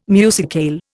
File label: "musicale", Indian